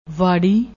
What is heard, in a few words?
"wari", Punjabi